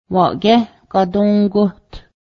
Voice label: here now let me through, Cayuga